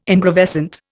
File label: "ingravescent", Indian